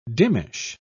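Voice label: "dimmish", British English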